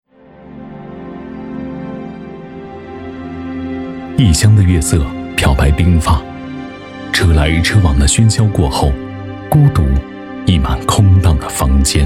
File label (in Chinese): 男, 宣传片; 流动的红 永恒的光